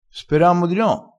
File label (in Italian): "speramo", crespinese